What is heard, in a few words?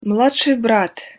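"mladsij brat", Russian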